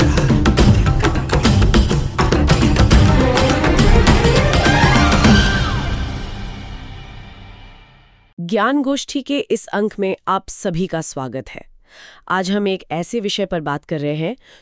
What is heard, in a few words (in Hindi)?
Audio Hindi; Engineering